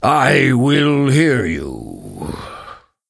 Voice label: Tuskarr Male Greeting; Warrior

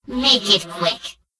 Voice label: Gnome Female Greeting; Death Knight

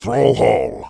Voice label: Orc Male Standard NPC Greeting

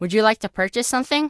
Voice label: Gnome Male Young NPC Vendor

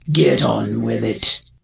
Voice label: NPC Death Knight Female Low Greeting

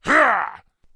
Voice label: Grunt Yes Attack

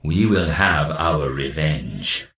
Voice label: Death Knight Male Low Farewell

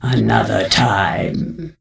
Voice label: NPC Death Knight Female Low Farewell

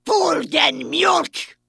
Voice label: Vrykul Female Warrior Farewell

